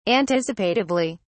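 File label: "anticipatively", English, British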